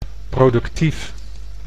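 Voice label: "produktief", Dutch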